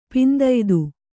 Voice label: "ಪಿಂದಯ್ದು", kannada

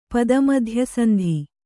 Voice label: "ಪದಮಧ್ಯಸಂಧಿ", Kannada

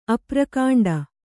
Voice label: "ಅಪ್ರಕಾಂಡ", Kannada

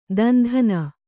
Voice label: "ದಂಧನೆ", Kannada

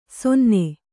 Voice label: "ಸೊನ್ನೆ", kannada

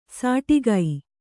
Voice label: "ಸಾಟಿಗೈ", Kannada

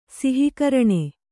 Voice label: "ಸಿಹಿಕರಣೆ", Kannada

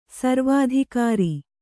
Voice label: "ಸರ್ವಾಧಿಕಾರಿ", kannada

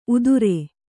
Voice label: "ಉದುರೆ", Kannada

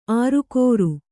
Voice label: "ಆರುಕೋರು", Kannada

